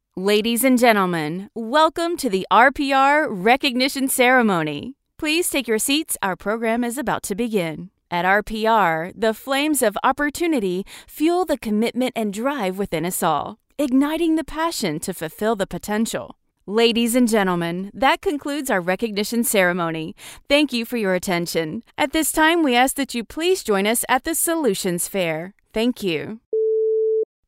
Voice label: Announcer, voice actor